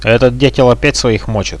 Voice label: friendly fire, Russian